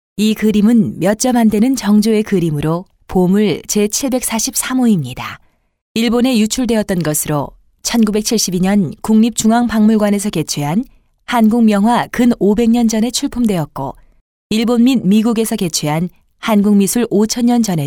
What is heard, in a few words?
Korean Female